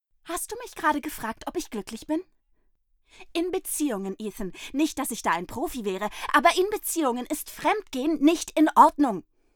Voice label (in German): aufgebracht